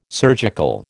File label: "surgical", American